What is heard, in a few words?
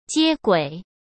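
"接轨", Mandarin Chinese; hsk; chinese-flashcards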